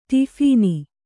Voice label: "ಟೋಫನ್", Kannada